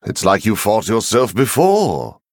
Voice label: Male Venthyr MIRROR START

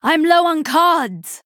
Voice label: Female Night Elf Low Cards

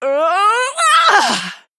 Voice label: Female High Elf Death

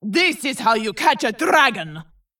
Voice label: Female Vrykul Play

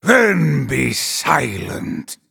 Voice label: Male Night Elf Emote MIRROR START

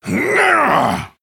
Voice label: Male Troll Attack

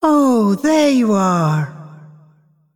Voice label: Female Dragon; TRIGGER ASTRAL RIFT

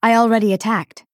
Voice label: Female Dryad ERROR

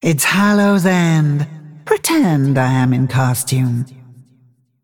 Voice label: Female Dragon HAPPY HALLOWEEN

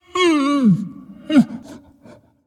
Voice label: Undead Beast

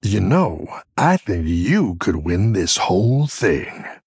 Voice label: Male Human Flavor

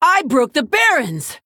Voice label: Female Orc

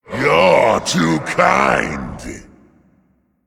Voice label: Male Inquisitor Attack

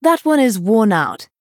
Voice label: Female Night Elf

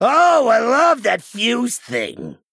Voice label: Male Goblin Time

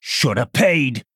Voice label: Male Human Attack

